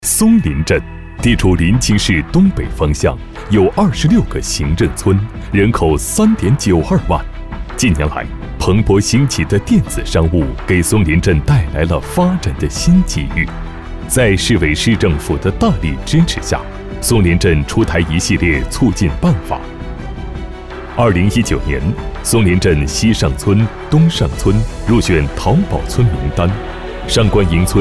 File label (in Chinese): 男 宣传片 地方政务 电商产业